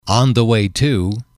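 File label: on the way to, male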